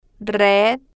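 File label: "red", slow, spanish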